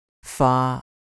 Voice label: "faa1", Cantonese

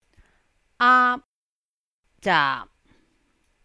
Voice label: aap zaap6, cantonese

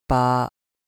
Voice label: "baa1", Cantonese

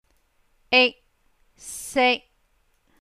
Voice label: ik sik1, cantonese

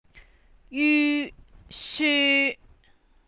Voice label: yu syu1, cantonese

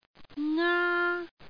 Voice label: "ngaa", Cantonese

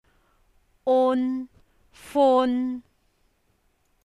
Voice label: un fun1, cantonese

